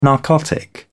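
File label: "narcotic", British English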